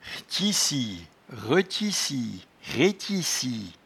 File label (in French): "rtchissie", patois jura